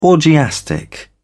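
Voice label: "orgiastic", British English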